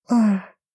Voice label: shy